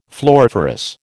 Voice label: "floriferous", American